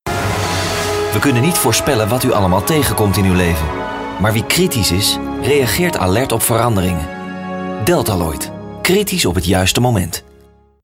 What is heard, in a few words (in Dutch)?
zakelijk, stem voice-over